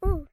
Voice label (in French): ou (accéléré et amplifié)